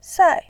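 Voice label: "sai", Mandarin Chinese, fourth tone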